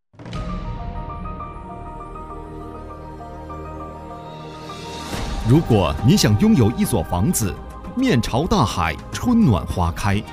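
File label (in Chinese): 地产宣传; 地产瀛海公馆宣传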